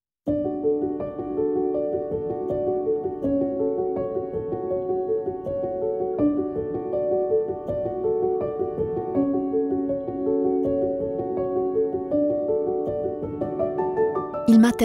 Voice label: female German Italian with German accent commentary; Devine Voices